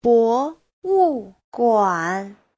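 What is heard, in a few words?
"bówùguǎn", Chinese; Giáo trình Hán ngữ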